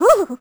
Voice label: vo confused; Crypt of the NecroDancer